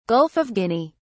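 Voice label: "Gulf of Guinea", British English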